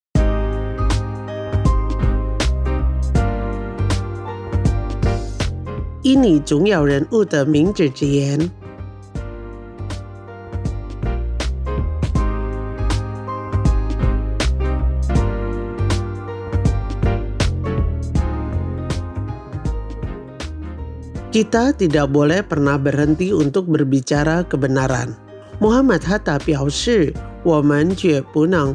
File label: Mandarin quote; Kata Bijak; MOH HATTA